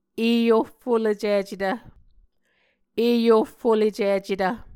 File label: "eohfolecicetv", Muscogee